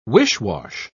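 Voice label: "wish-wash", English, British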